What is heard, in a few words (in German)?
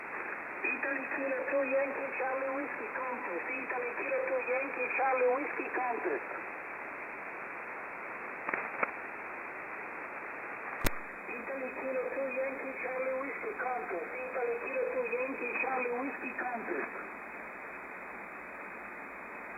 Amateurfunk USB; 14271khz